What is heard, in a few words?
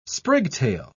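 "sprigtail", English, British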